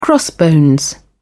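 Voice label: "crossbones", British English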